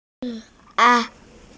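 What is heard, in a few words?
"hei1an4", Mandarin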